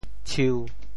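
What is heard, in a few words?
"tshiu1", Teochew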